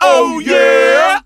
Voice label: "oh yeah" group of men; ooh ahh